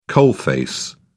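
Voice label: "coalface", English, British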